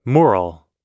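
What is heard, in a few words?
"moral", English; oxford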